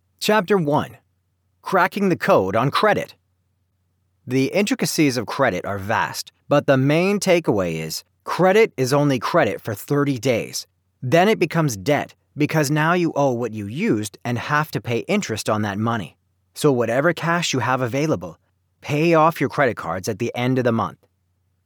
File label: Audiobook; Life Skills For Teens